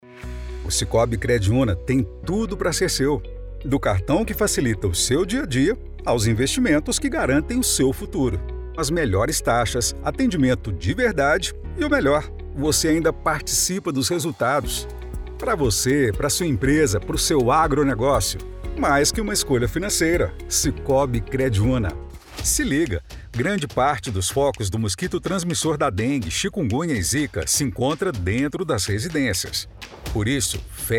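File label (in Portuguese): Institucional Solto Natural, Locução Publicitária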